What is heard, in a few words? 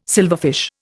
"silverfish", Indian